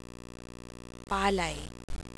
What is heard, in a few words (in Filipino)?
palay, Diphthongs, tagalog; palay (rice); Dipthongs